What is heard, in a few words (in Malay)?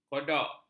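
"kodok", Malay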